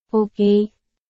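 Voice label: Burmese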